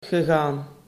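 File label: "gegaan", Dutch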